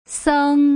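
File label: "seng", Putonghua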